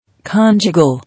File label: "Conjugal", English